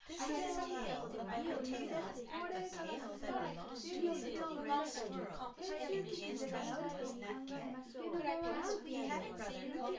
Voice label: female synthconv 250ms 1m mix; SiSEC10; underdetermined

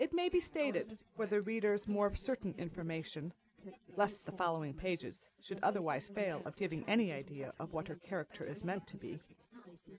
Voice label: female4 inst src; SiSEC08; SiSEC underdetermined

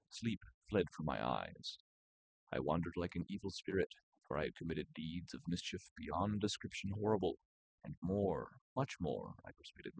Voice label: male4 inst sim; SiSEC08; SiSEC underdetermined

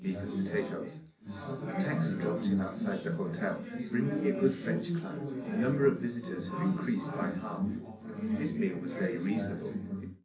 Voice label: male3 380ms 20cm, underdetermined; SiSEC11

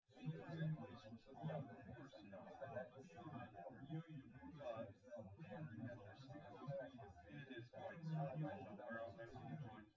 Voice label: male synthconv 250ms 1m sim; SiSEC08; SiSEC underdetermined

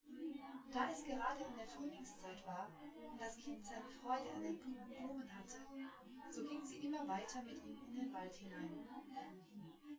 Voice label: female synth conv; SiSEC10; underdetermined

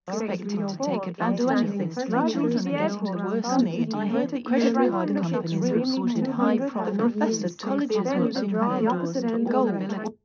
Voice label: female mix; SiSEC11; underdetermined

